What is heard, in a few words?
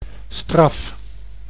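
"straf", Dutch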